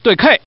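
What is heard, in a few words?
Man, pair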